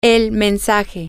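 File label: the message, spanish, female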